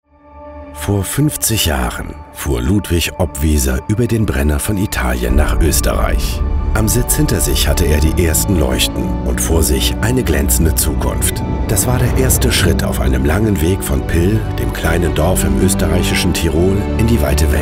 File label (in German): Imagefilm; eglo; Muttersprache Deutsch, Französisch